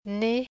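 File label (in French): "nez", French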